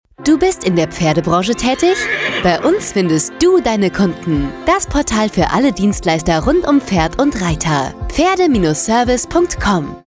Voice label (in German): Funkspot, Pferde Service